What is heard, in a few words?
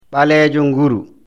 "zbaleejo nguru", lecture fl